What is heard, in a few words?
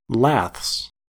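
"laths", American English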